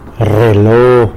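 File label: "watch", Tlacolula Zapotec; rrelo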